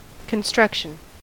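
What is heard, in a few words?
"construction", US English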